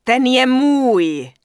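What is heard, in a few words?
Human Female Standard NPC Farewell, Czech; wowpreklad; czwow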